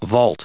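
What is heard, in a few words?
"vault", US English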